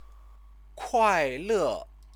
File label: "kuaile", Chinese; 快 乐; happy; stative verb